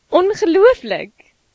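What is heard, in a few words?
awesome, Finnish; gcompris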